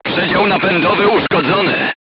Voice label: voice message engine damaged, crew tanks polish